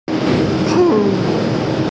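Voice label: "chu2fang2", Mandarin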